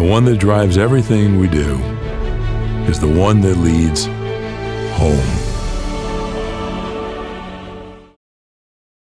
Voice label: Hospital Doors, Narrative